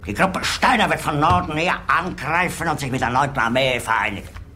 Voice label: chat